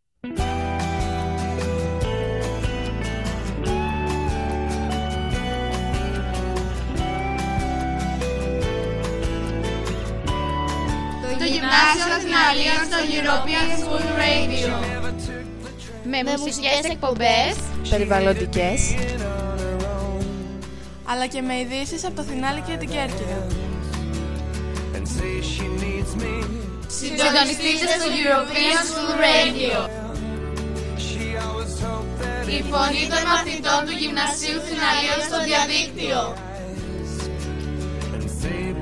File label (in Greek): spot; Θιναλίων